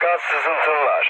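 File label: Turkish damaged water, mood low, speech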